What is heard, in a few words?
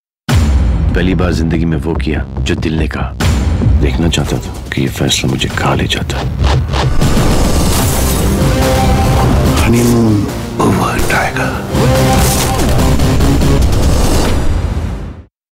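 Dialogue Romantic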